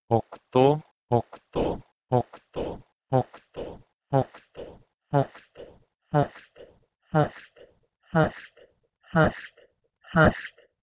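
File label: Proto-Indo-European oktou to Tajik hasht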